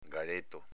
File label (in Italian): "galeto", dialetto veneto